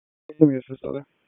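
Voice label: Police Southeast District Dispatch; Raleigh; wake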